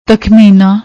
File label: "takhmeena", Punjabi; ਤਖਮੀਨਾ